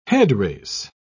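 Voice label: "headrace", British English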